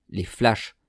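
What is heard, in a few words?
"les flashs", French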